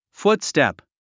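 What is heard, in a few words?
"footstep", English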